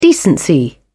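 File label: "decency", English, British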